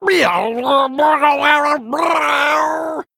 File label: Male; Saltscale